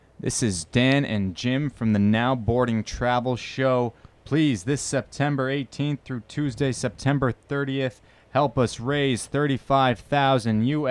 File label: Promo; Now Boarding with Jim and Dan; Radio Love